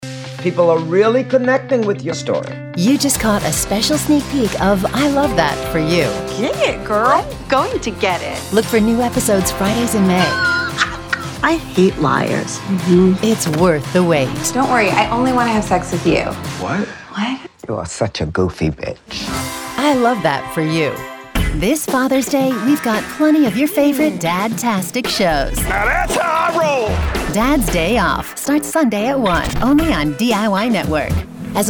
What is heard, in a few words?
Promo